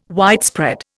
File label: "widespread", Indian